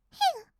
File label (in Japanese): "へう", kawaii voice; ASMR; 色々なワンショット